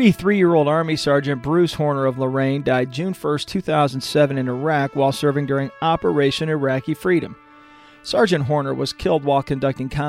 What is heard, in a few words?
FM Fallen Promo; Ohio Fallen Heroes